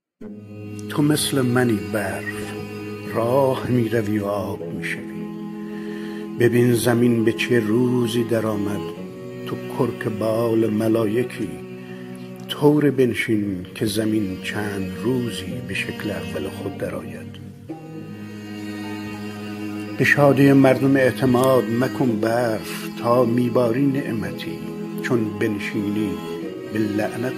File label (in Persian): دکلمه; به شادی مردم اعتماد; shams langeroodi; barf